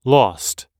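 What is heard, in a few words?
"lost", US English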